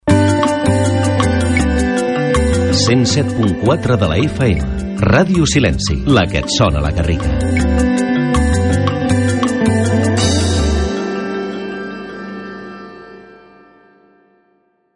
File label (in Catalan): Indicatiu Radio; Sssilenci; 2025